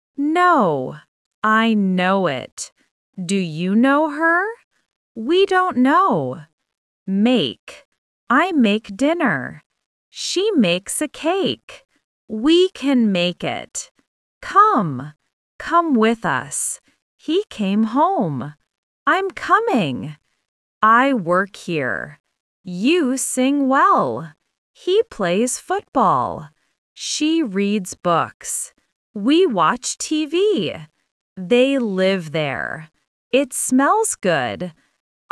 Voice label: AI speech